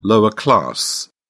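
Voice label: "lower class", British English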